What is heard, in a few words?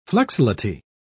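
"flexility", British English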